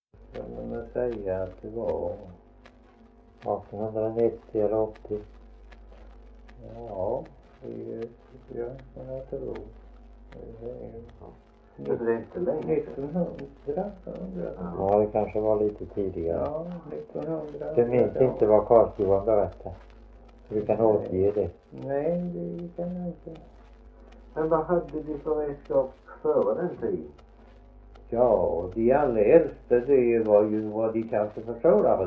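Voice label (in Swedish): Värö, Swedish dialect; svenska sagor; Folkminnen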